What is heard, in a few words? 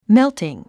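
"melting", English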